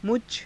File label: "mujha", Hindi